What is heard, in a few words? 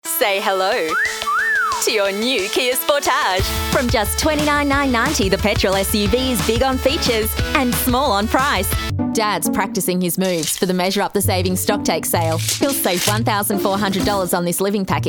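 female retail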